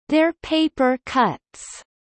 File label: Kelly low; spring festival